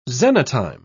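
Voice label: "xenotime", British English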